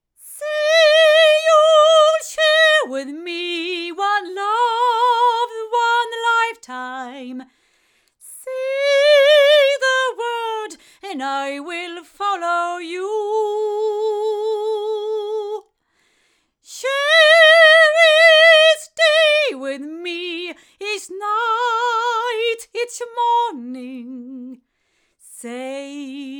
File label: female; Violet Finger; microphones; violet designs